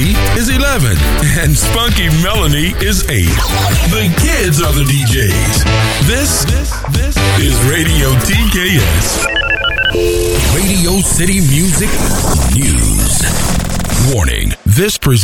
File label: American male voice over